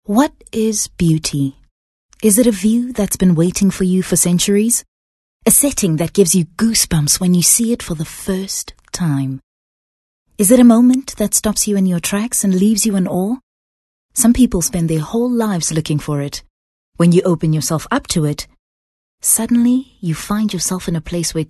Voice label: English Softsell